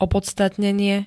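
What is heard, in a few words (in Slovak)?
"opodstatnenie", Slovak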